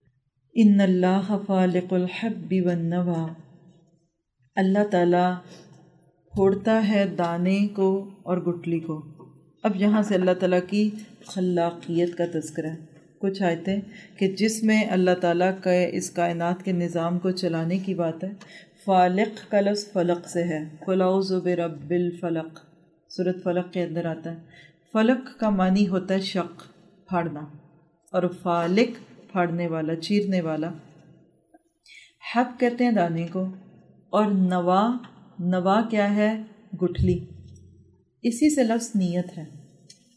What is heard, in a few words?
little background noise on some places; Al Anaam 95-100